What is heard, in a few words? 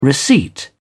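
"receipt", British English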